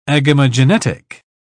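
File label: "agamogenetic", British English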